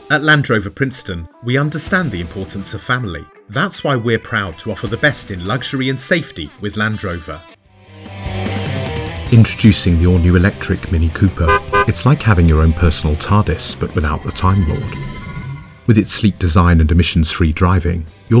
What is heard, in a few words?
english british automotive